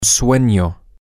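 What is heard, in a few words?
sleepy, Spanish, male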